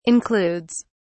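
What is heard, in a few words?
"includes", British English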